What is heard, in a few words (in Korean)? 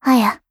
attacked, KOR; Voice lIne; Eternal Return; Katja